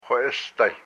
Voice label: "xo'osday", hupa